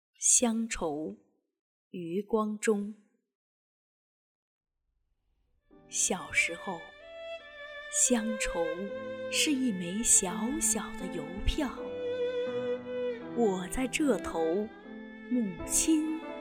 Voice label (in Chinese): 配乐朗诵; 乡愁余光中